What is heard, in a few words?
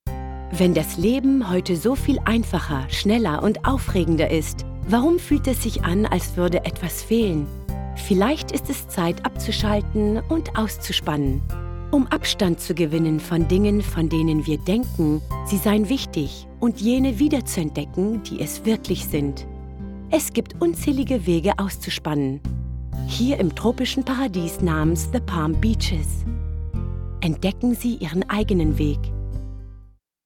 German commercial